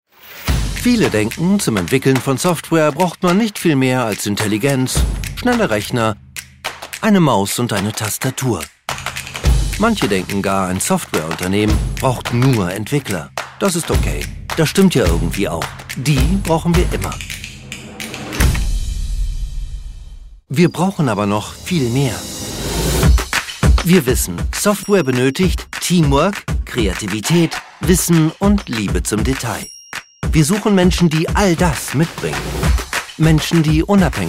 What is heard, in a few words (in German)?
Sprecher Recruitment Video; Babtec